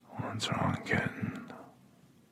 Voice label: kitten whisper sound; discord

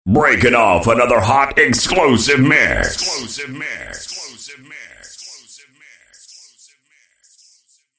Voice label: vocal exclusive mix echo; djdrops247

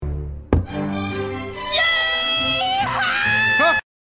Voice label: female yeehaw

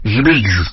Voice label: chatter; lego star wars; 4-lom

